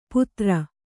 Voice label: "ಪುತ್ರ", Kannada

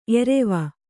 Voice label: "ಏರುವೆ", Kannada

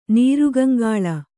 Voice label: "ನೀರುಗಂಗಾಳ", Kannada

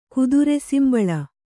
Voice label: "ಕುದುರೆಸಿಂಬಳ", kannada

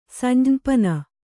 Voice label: "ಸಂಜ್ಞಪನ", Kannada

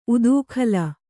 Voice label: "ಉತ್ಕಲಿ", Kannada

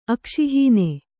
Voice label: "ಅಕ್ಷಿಹೀನ", kannada

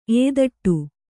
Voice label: "ಎತ್ತಿಡು", Kannada

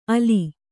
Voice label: "ಆಲ್", Kannada

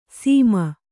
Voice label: "ಸಿಮ", Kannada

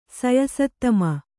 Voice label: "ಸಯಸತ್ತಮ", Kannada; saya sattama; superior; matchless.

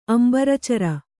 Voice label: "ಅಂಬರೇಚರ", Kannada

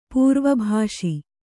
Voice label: "ಪ್ರವಿಭಾಸಿ", Kannada

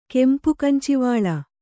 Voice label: "ಕೆಂಪುಕಂಚಿವಾಳ", kannada

